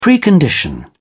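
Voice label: "precondition", British English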